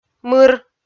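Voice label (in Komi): "мыр", Komi, female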